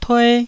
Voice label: "tue1", taiwanese Syllables

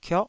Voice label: "kioh9", taiwanese syllables

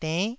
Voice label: "denn9", Taiwanese syllable

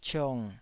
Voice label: "ciong3", Taiwanese

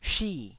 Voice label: "shi4", taiwanese Syllables

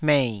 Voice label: "mei3", Taiwanese syllable

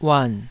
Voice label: "uan3", taiwanese Syllables

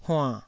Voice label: "huann3", Taiwanese syllable

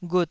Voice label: "ghut2", Taiwanese syllable

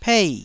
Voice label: "pei4", taiwanese

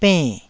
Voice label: "benn4", taiwanese syllables; 台語音節發音檔案

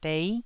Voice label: "dei9", Taiwanese syllable

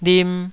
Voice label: "lim2", Taiwanese syllable

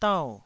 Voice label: "dau4", Taiwanese syllable

